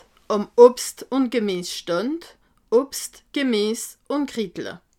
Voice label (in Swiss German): "obst", alsace